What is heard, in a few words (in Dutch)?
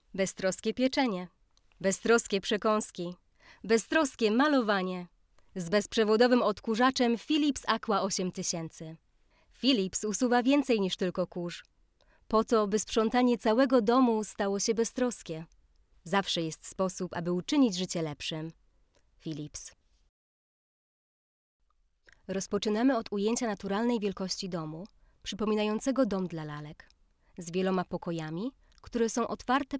Pools vrouw